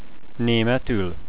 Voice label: german, hungarian